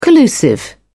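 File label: "collusive", British English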